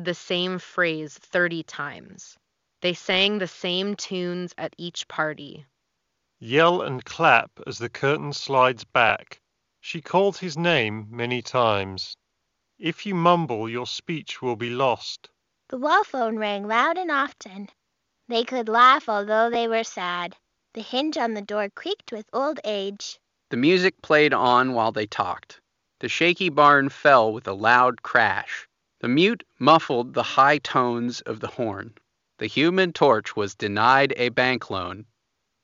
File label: Ideal microphone sample; Logitech G535